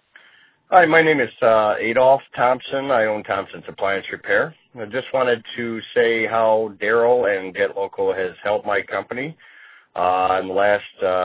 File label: testimonial